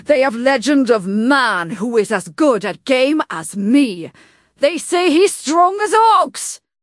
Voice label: happy; fertig classic games